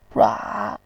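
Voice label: "rua", Mandarin Chinese, third tone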